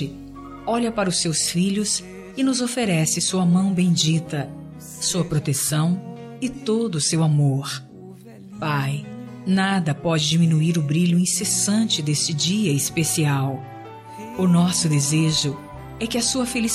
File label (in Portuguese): natal pai fem, natal pai voz feminina